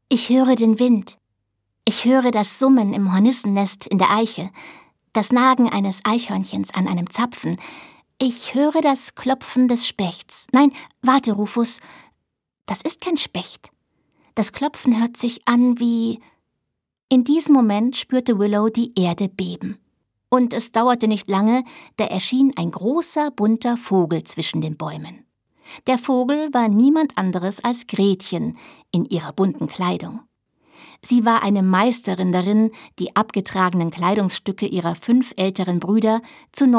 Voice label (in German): Hörbuch, frauen; Ein Mädchen Namens Willow